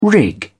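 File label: "rig", British English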